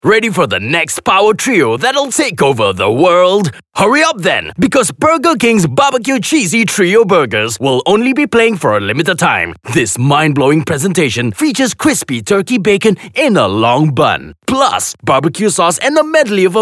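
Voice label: Eng Announcer Energetic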